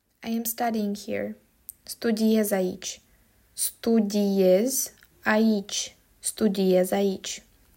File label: "I am studying here", Romanian